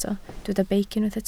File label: "beic", Welsh